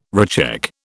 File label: "recheck", British